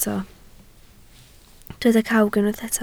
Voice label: "cawg", Welsh

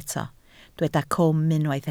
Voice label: "cwm", Welsh

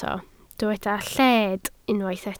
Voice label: "lled", Welsh

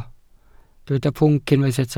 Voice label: "pwnc", Welsh

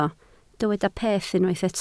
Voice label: "peth", Welsh

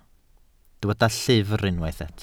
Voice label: "llyfr" Welsh

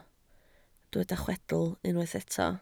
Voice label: "chwedl", Welsh

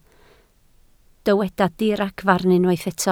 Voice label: "diragfarn", Welsh

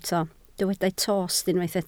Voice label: "tost", Welsh

